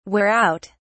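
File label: "wear out", British English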